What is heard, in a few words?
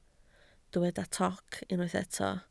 "toc", Welsh